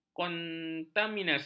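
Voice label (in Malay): "kontaminasi", Malay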